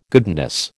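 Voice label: "goodness", American English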